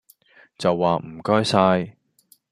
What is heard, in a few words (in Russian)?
Голоса, Гонконгский